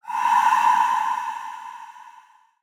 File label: Ghost Voice Short